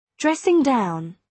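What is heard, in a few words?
"dressing down", British English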